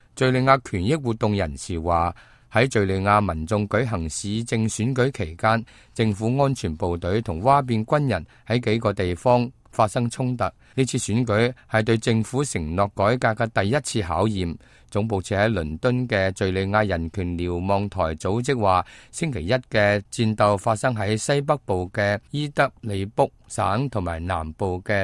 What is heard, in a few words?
cantonese, news